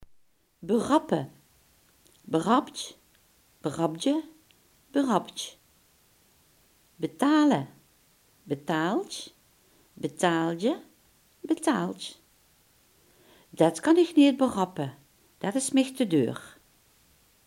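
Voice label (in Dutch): "betalen" Limburgish dialect word; betalen, berappe (beraptj, berapdje, beraptj), betale (betaaltj, betaaldje, betaaldj); Det kan ich neet berappe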